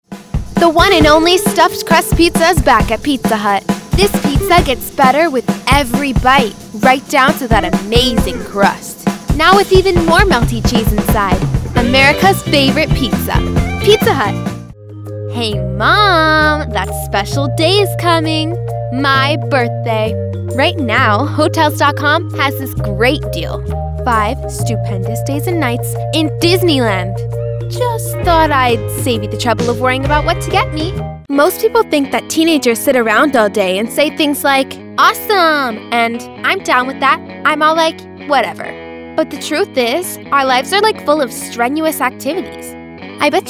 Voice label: Commercial